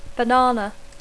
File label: "banana", English